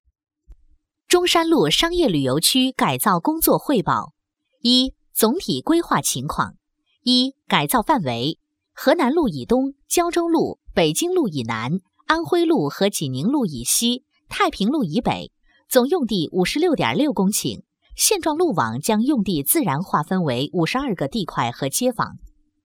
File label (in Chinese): 多媒体 项目规划 商业旅游区, 女国